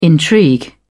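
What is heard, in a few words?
"intrigue", English, uk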